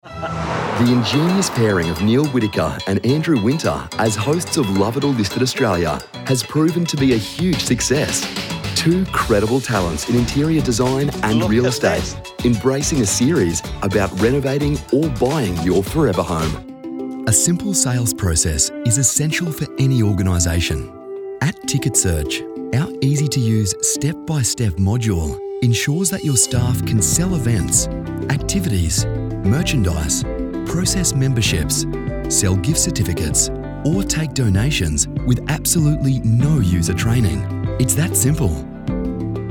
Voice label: Corporate